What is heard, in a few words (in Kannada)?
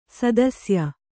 "ಸದಸ್ಯೆ", Kannada